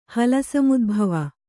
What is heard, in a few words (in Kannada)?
"ಹಲಸಮುದ್ಭವ", Kannada